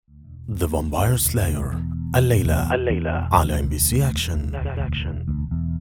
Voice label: trailer; THE VAPMIRE